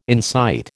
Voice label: "incite", American